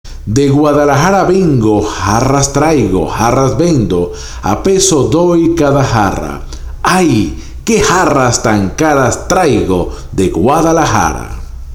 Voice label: JARRAS DE GUADALAJARA, spanish tongue twisters; 46 Spanish Tongue Twisters To Perfect Your Pronunciation